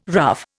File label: "rough", Indian